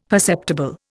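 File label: "perceptible", Indian English; Dictionary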